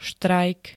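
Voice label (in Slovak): "štrajk", Slovak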